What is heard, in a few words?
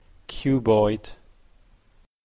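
"cuboid", Canadian English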